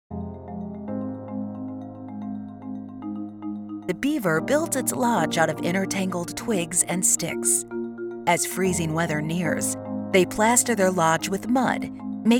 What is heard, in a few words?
Documentary